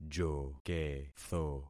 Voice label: "joketho", concat syllables; Affixing experiment